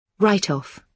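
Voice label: "write off", British English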